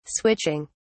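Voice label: "switching", British English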